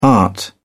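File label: "art", British English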